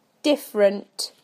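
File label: "different", British English; PictureDictionary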